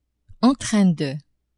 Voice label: "en train de", French; adverbs